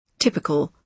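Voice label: "typical", British English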